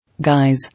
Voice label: "Guise", English